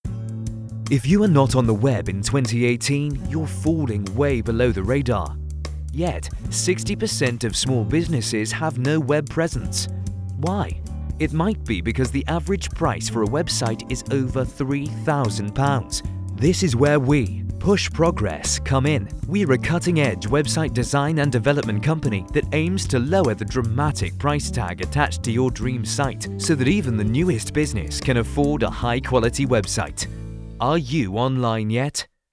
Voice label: Internet UK

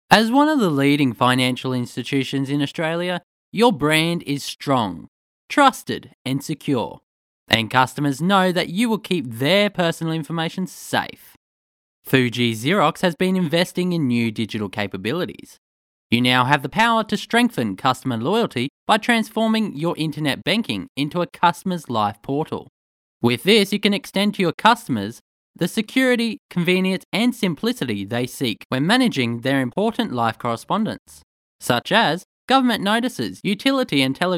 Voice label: Corporate Pitch

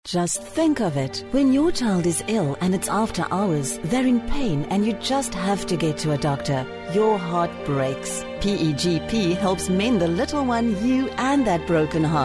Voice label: Radio Commercial English

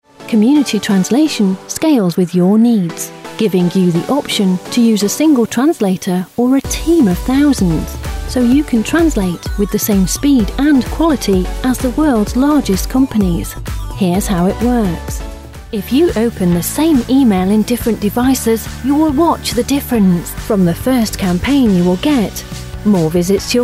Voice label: Corporate Explainer

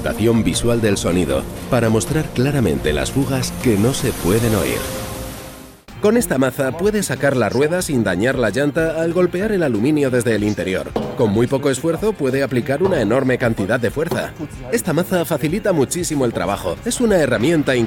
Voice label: Spanish Castilian industrial products

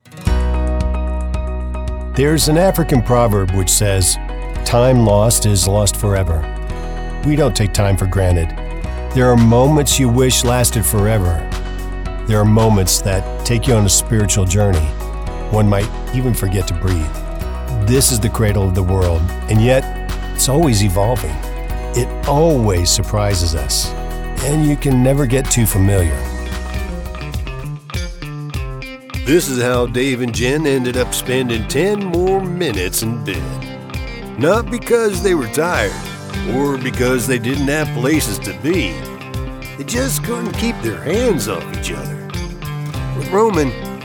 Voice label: voice mature

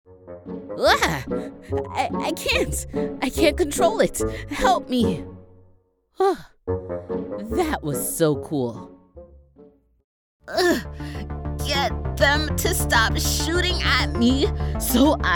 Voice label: Animation and Character

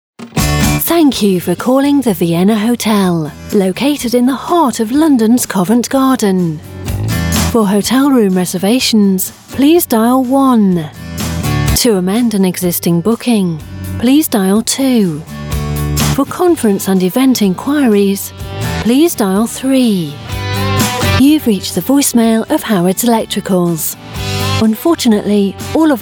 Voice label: IVR

